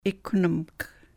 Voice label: "ikwni-mk", sahaptin